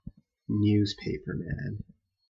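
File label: "newspaperman", English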